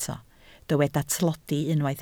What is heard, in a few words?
"tlodi", Welsh